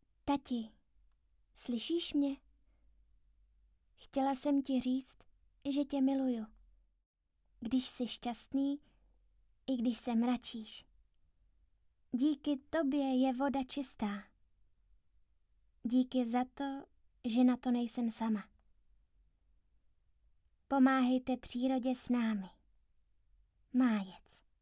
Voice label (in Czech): ukazka reklama